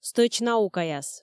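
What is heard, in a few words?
"стӧч наукаяс", Komi, female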